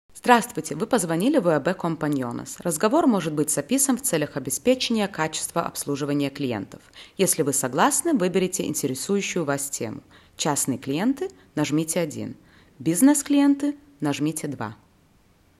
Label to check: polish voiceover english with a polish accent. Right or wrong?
Wrong